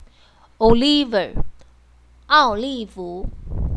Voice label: Oliver in chinese